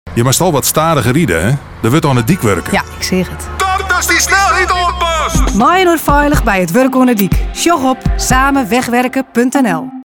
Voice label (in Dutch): Radioreclame Fries